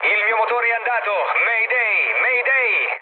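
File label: Italian damaged engine mood low, speech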